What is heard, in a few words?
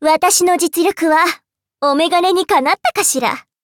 levelup ja; cookie run